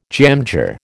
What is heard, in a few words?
"jamjar", American